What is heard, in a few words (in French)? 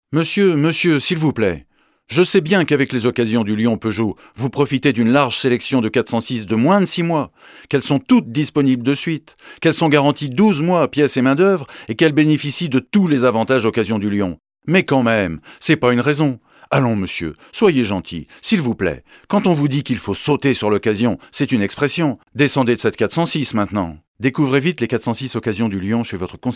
Pub Radio